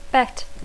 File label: "bet", English; verb tr conj (bet/bet/betting); apostar; jugar